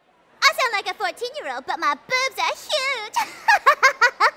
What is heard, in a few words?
anime girl sound effect